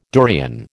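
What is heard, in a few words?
"durian", American English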